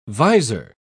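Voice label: "vizor", British English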